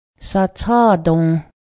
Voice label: bury, Cayuga